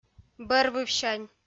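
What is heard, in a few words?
"бӧрвывсянь", Komi-Zyrian, female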